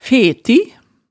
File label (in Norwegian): "feti", hemsedalsmaalet